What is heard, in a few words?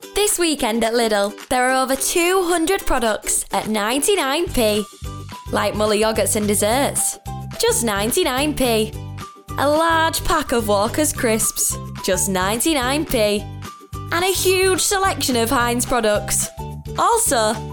female English Yorkshire accent commercial